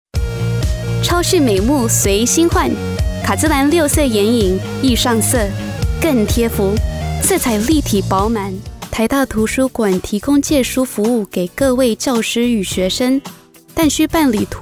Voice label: Mandarin Commercial